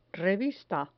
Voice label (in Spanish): "revista", Spanish